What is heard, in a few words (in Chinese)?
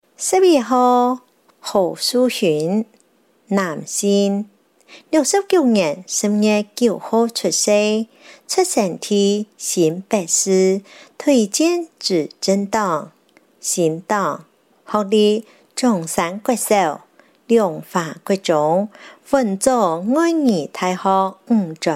客語; 第四選區